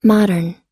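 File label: "modern", American English; modern /mɑ́:dɚn; 現代の、近世の、近代の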